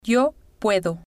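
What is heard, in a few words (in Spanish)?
yo puedo, spanish, female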